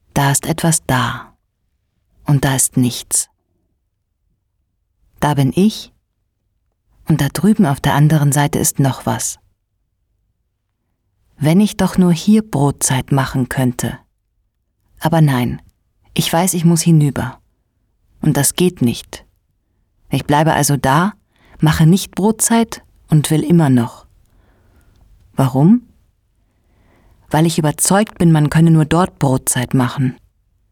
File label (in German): hörspiel; LOFT VOICES